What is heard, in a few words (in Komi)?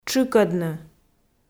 "тшыкӧдны", Komi